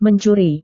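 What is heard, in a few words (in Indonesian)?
"mencuri", Indonesian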